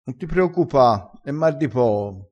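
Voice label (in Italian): "e mar", dialettale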